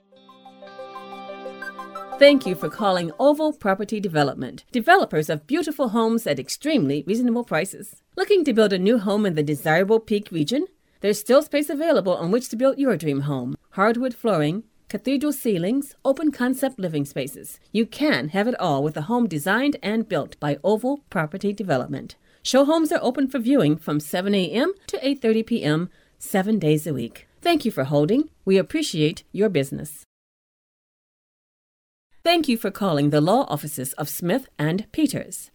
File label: american voicemail